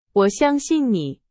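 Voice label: Chinese, example sentence; hsk2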